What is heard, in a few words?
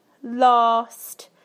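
"last", British English; PictureDictionary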